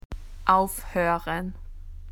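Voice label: "to stop", Australian English; PictureDictionary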